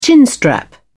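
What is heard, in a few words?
"chinstrap", English, British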